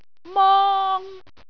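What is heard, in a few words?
"mong1", Cantonese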